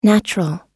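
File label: "natural", US English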